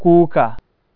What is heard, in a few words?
baobab, Hausa